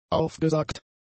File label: "aufgesagt", German; adjectives